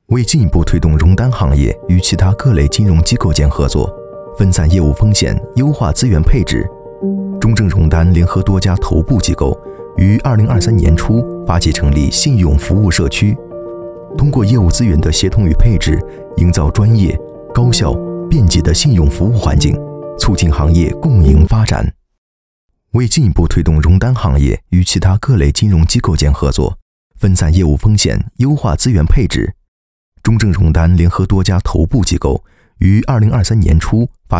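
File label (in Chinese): 男国大音-专题科技感-中正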